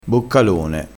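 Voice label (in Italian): "boccalone", dialettale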